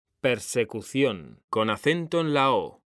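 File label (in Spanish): "PERSECUCIÓN", Spanish; mekanta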